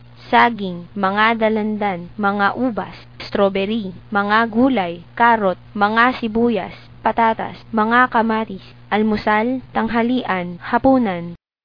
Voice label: filipino; food